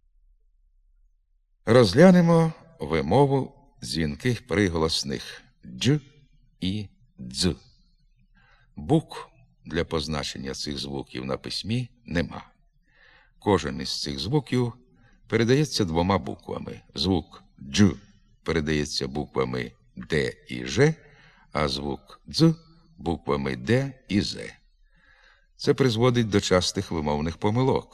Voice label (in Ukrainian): Zvuk DZH, Ukr literat vymova; Pogribnyi